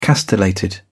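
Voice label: "castellated", British English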